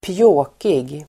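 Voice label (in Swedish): "pjåkig", Swedish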